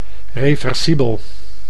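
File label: "reversibel", Dutch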